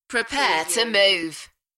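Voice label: UK female, DJ vocals; beanstalk-audio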